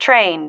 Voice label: "trained", female